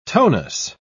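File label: "tonus", British English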